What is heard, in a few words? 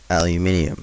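"aluminium", Australian English; pronunciations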